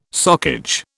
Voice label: "socage", British